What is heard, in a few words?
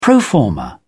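"pro forma", British English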